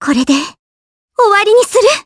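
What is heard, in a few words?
voice Skill, voices heroes, Japanese; Kirze; kingsraid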